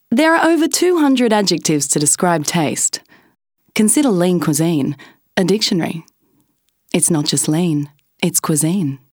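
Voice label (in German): British English Werbung Freundlich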